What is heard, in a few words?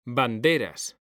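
"banderas", Spanish, male; mekanta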